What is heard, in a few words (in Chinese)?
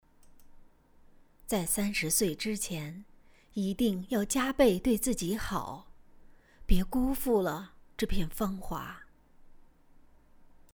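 一句话配音试音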